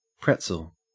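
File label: "pretzel", Australian English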